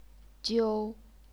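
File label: "jiu", Chinese, first tone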